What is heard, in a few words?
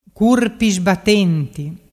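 "curpisbattenti", Vernazzese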